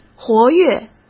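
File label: "活跃", Mandarin Chinese; chinese flashcards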